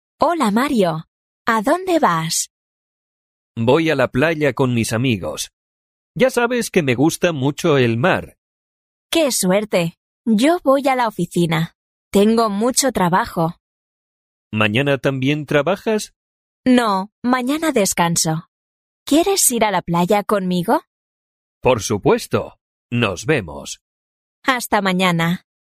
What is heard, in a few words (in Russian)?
urok dialog